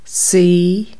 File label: "sit", Irish